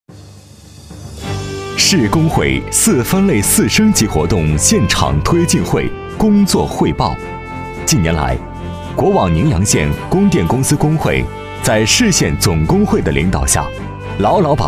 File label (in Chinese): 男 总工会现场会材料